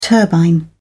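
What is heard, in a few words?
"turbine", British English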